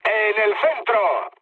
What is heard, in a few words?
bomb success mood med; speech; War Thunder